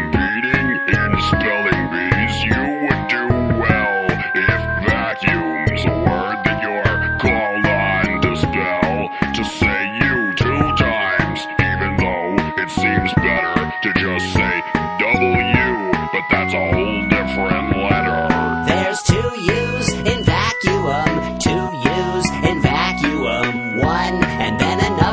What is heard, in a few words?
vacuum, ads